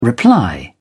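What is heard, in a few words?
"reply", British English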